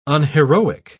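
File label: "unheroic", British English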